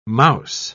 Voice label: "mouse", English